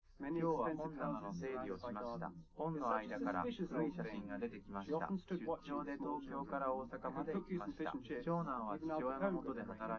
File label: male live rec